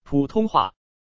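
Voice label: "pu tong hua", Mandarin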